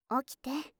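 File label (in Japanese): "起きて", Japanese; 目覚ましボイス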